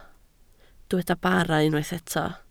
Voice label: "bara", Welsh